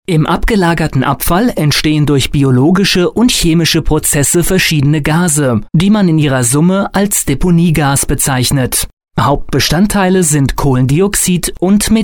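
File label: German, male, narration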